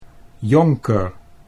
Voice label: "jonker", Dutch